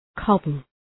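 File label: "cobble", English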